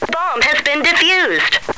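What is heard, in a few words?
bomb defused, radio woman; cstrike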